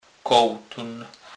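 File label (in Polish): "koltun", Kurpie dialect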